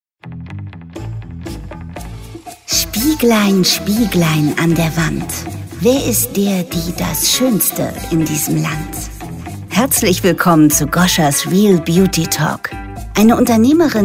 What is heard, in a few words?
Podcast Intro; Gosia Beauty Talk Podcast; mit der Sarah Jessica Parker Stimme